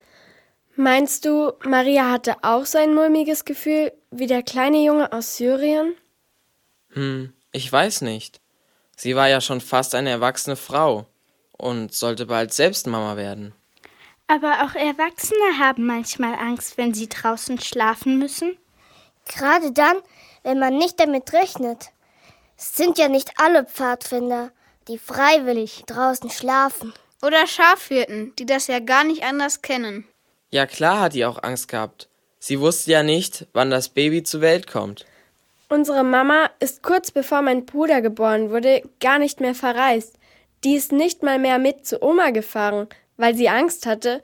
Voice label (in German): hoerspiel